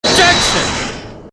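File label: "objection", male; Kristoph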